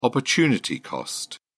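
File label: "opportunity cost", British English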